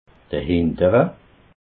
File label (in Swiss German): "hintere", alsace